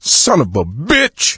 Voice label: reaction negative, survivor voice